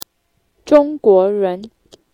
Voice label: Chinese, conversation